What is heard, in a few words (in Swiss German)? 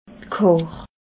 "kar", alsace